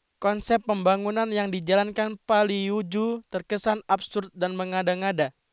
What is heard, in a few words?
news